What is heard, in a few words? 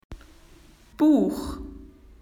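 "book", Australian English; PictureDictionary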